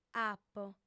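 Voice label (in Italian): "apo", lingua sarda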